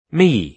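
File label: "me", British English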